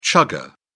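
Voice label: "chugger", British English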